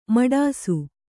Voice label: "ಮಡಿಸು", Kannada